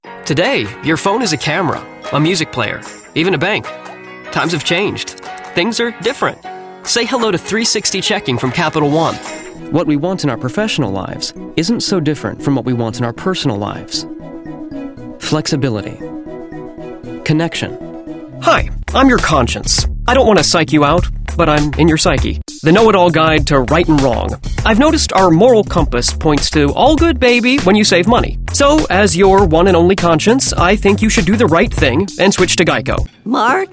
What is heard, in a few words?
commercial male